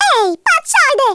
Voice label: OI NUTTER, Italian; Worms Armageddon

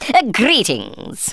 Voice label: hello, Speech Thespian; Worms Armageddon

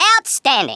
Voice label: "Excellent", Australian; Worms Armageddon

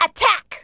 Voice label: "fireball", Smooth Babe; Worms Armageddon

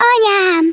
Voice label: OH DEAR, Speech Hungarian; Worms Armageddon

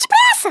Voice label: "COME ON THEN", Portuguese; Worms Armageddon

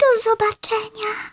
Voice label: OH DEAR, Speech, Polish; Worms Armageddon

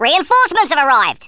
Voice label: "DROP", Australian; Worms Armageddon

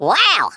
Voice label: "AMAZING", Australian; Worms Armageddon

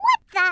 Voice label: "Take cover", Brooklyn; Worms Armageddon

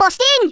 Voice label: "amazing", Brummie; Worms Armageddon

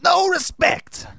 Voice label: "Coward", speech; Soul Man

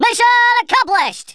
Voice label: victory, Drill Sergeant; Worms Armageddon

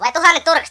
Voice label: what the, Finnish; Worms Armageddon

